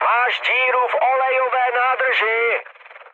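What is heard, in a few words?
damaged oil, Czech, mood med; speech; War Thunder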